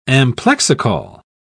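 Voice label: "amplexicaul", British English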